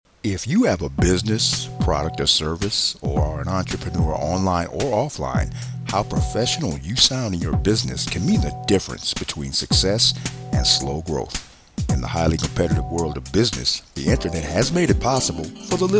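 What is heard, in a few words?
voice over commercial; jazz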